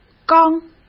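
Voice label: "刚", Mandarin Chinese; hsk; chinese flashcards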